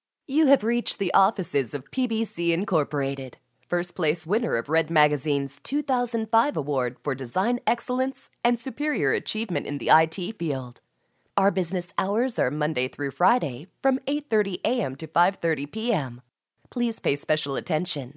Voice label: Hip and Trendy Dry